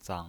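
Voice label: "zang" Mandarin Chinese pinyin voice, neutral tone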